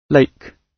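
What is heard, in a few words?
"lake", British English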